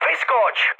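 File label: bailout, Czech, mood med; speech; War Thunder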